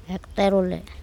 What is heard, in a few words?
"ektErole", andamanese; sell